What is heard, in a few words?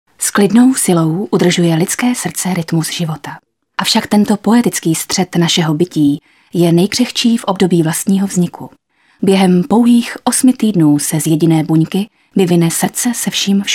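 Female Czech documentary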